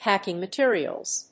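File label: "packing materials", English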